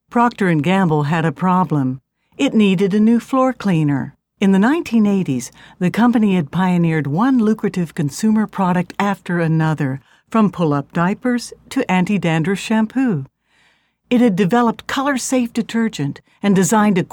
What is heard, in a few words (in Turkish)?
Amerikan Ingilizcesi Kadin; Amerikan İngilizcesi Seslendirme; Kadın Ses